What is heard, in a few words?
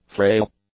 "frail", English